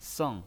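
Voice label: "seng", Mandarin Chinese pinyin, fourth tone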